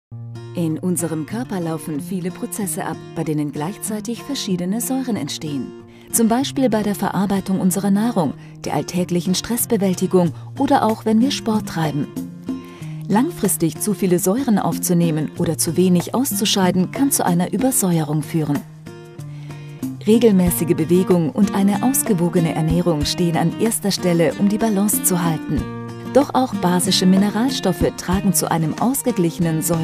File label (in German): Produktfilm; Basosyx